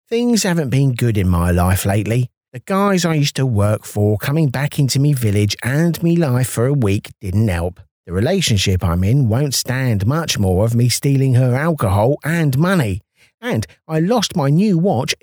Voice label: Comedy Audio Book Character